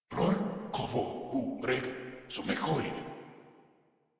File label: Patrol Grineer Male, Barks, Battle